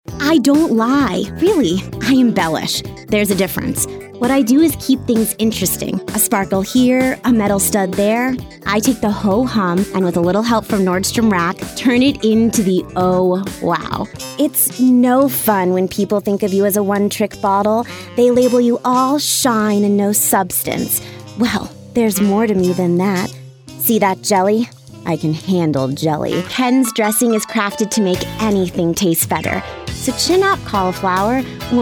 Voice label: Commercial